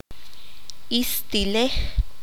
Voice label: "esposa", Q'anjob'al

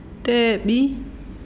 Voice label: "pasto", mazahua

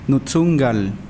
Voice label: Nawat palabras cabello; pipil

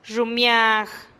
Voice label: "xummiag" canasta, teotitlan

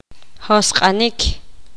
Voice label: poqomam limpiar

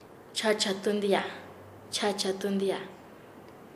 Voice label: "amarrar nudo", Nasa Yuwe; paez

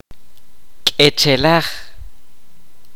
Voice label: "bosque", Kaqchikel

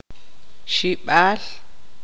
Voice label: "hermano de mujer", tzutujil

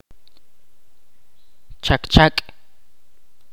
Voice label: "rojo", Ch'orti'

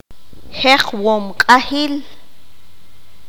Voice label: "Huesero", qanjobal

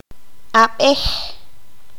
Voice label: "escuchar", Q'anjob'al

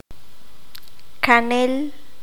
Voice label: "cuatro veces", qanjobal

